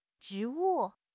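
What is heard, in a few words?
"zhi2 wu4", Mandarin Chinese; HSK4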